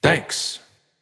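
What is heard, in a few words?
"Thanks", male; smite; olorun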